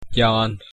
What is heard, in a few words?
goodbye, vietnamese